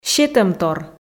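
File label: "сетӧмтор", Komi, female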